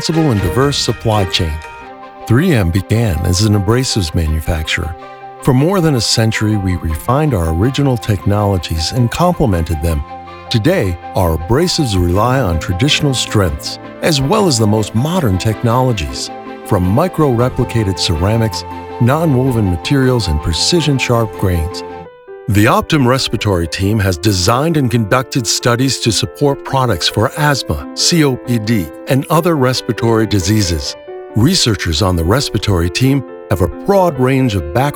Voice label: CORPORATE

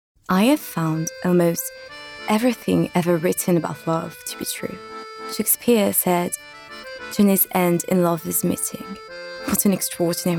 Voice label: Narration V.O.

